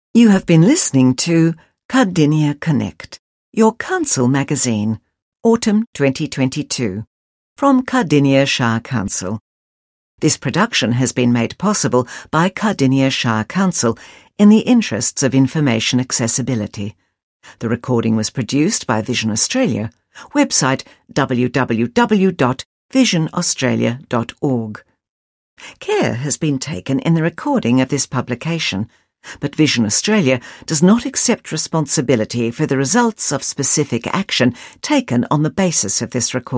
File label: closing announcement